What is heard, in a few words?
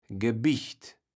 "gebicht", Kaevels Platt